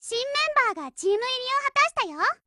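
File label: BUILD OVER, Japanese, Voice; Lusa 0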